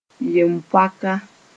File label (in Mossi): "yem-poaka", moore